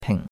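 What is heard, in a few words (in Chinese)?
"ping3", Chinese; Cours-de-Chinois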